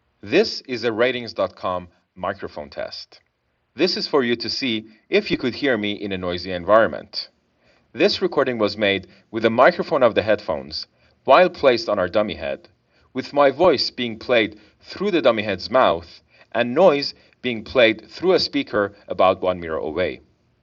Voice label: internal mic; Noble FoKus Apollo; over-ear headphone; Bluetooth; Your voice will sound a bit more boxy and nasal, but you'll still be intelligible

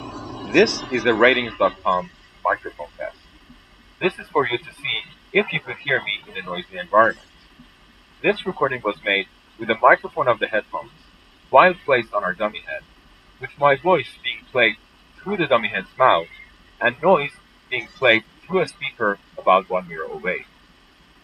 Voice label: usb internal mic noise; gaming headset; Swedish; Pink Noise

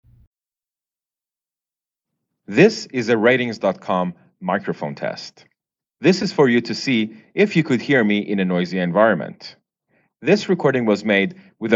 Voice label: phone recording

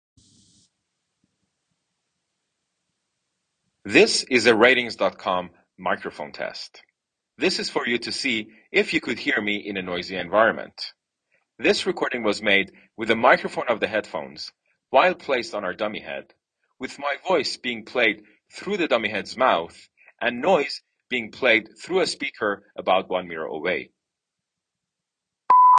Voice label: iphone voice

